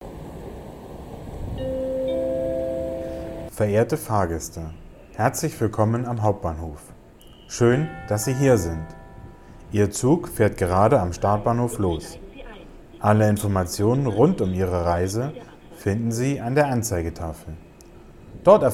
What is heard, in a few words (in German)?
horspiel; 1 adventssonntag